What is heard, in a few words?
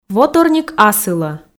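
"воторник асылӧ", Komi, female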